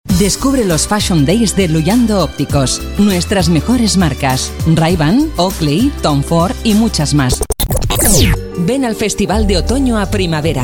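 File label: female Castilian Spanish voice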